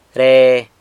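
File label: "re", that, San Lucas Quiaviní Zapotec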